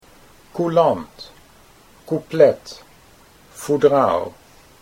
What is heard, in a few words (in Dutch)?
coulant couplet foudraal, Dutch